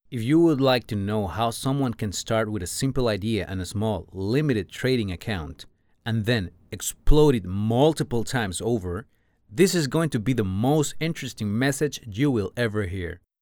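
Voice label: Spanish Latin America male voice over, English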